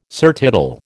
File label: "surtitle", American